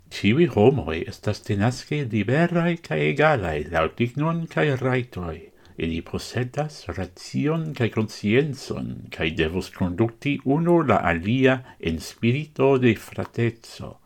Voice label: esperanto

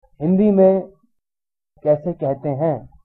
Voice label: how to say, hindi

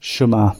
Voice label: hello, gwentian; Shwma; Welsh (Gwentian)

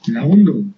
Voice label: "help", Basque

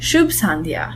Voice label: good evening, gujarati

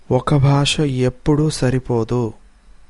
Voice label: one language, Telugu

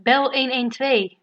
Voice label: police, dutch

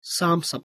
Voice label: cantonese, numbers

